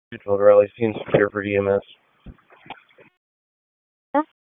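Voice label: Police Northeast District Dispatch, p25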